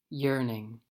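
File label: "yearning", US English; pronunciations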